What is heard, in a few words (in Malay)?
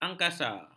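"angkasa", Malay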